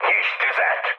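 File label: attack position, mood high, Hungarian; War Thunder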